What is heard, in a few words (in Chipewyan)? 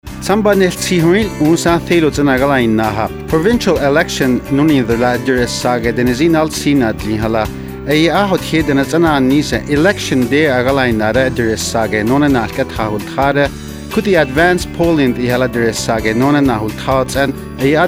recruitment ad Dene; Take Part; MBC